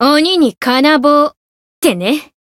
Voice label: growth, jp; kikyou

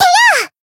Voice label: attack, jp; nekoko

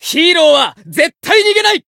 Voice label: voice jp

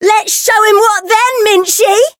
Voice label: Voice chant

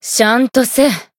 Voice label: heal, jp; hinagiku

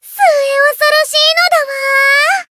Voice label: voice jp; thillelille

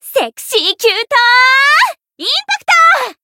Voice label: limitbreak, jp; millecha

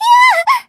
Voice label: damaged, jp; mayu

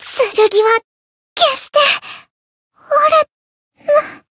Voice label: voice jp; thillelille

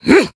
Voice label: limitbreak, jp; raven

